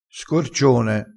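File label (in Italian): "scorcione", dialettale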